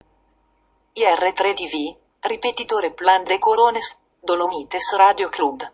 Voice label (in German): Kennung italienisch; Kronplatz